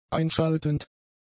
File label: "einschaltend", German; adjectives